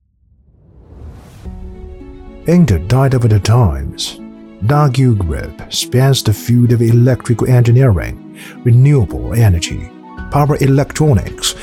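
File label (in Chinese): 男 磁性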